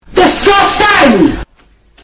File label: british lady disgusting